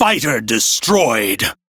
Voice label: Crew Gun Kill Fighter, Male, en; Warframe; Railjack Crew; Arbiters Hexis